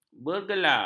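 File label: "bergelar", Malay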